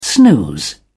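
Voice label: "snooze", British English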